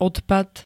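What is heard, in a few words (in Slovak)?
"odpad", Slovak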